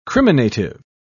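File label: "criminative", British English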